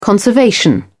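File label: "conservation", British English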